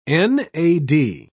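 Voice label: "nad", British English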